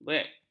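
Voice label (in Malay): "beg", Malay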